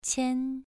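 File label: "qian", tone 2, pronunciation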